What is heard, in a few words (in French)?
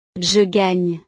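"je gagne", French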